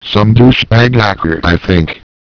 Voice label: chat; svencoop; cracklife; hgrunt